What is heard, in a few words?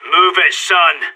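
radio lets go, voice; agents; sas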